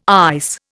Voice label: "ICE", Indian